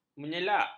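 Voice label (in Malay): "menyelak", Malay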